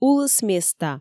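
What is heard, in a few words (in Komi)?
улыс места, Komi, female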